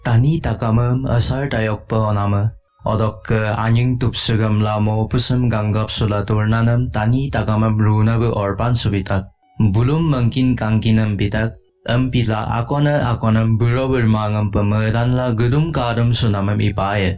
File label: udhr mising